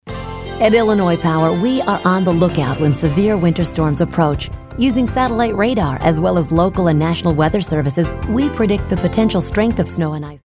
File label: friendly, genuine